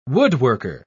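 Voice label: "woodworker", British English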